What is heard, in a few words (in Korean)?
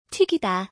"튀기다", Korean; Korean words about cooking